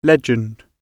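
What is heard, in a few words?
"legend", British English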